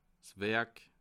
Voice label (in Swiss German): "werk", alsace